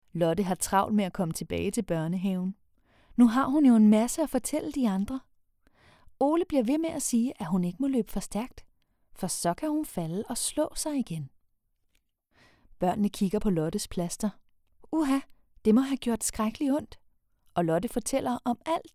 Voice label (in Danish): Lydbog; BØRN